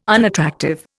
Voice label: "unattractive", Indian